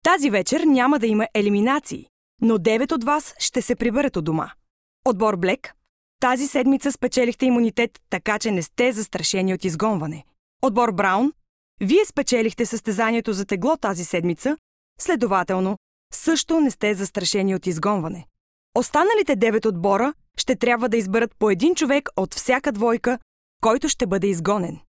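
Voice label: bulgarian female narration; voice demos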